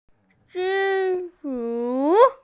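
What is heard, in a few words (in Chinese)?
"zhi1zhu1", Mandarin Chinese, female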